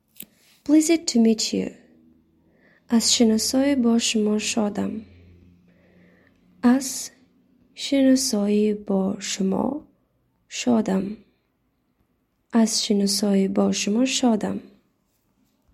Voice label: Pleased to meet you, Tajik